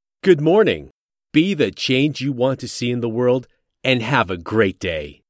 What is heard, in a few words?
Male; Be the change